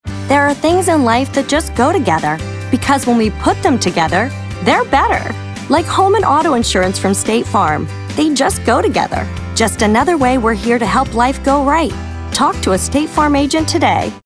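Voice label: spot, voiceover; state farm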